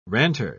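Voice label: "ranter", British English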